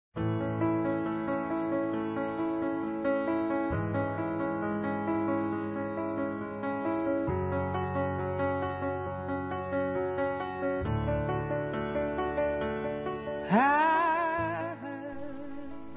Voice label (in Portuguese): Dia das Mães perdão para mãe feminino; Telemensagens 2017